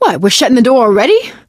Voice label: hurrah, survivor voice; source engine; left 4 dead 2; producer